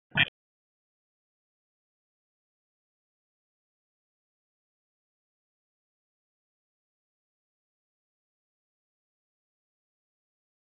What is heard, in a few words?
Police Northwest District Tac 1; Raleigh Police Northwest District Tac 1; wake p25